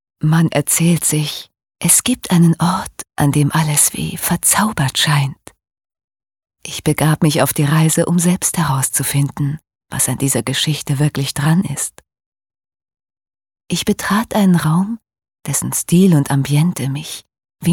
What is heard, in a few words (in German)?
Schauspiel, Sanft